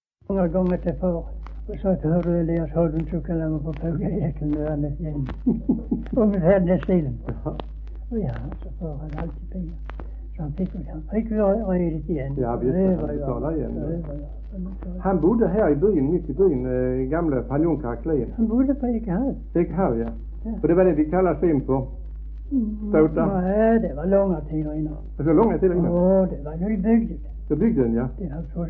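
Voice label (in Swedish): Höör Skåne, svenska sagor dialekter; Folkminnen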